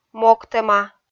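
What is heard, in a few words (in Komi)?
"могтӧма", Komi, female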